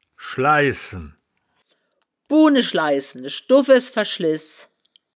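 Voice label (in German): "schleissen", wittlicher woerterbuch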